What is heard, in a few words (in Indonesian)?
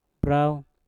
"brauw" dengan, Mpur